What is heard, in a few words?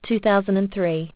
aus, aussie prompts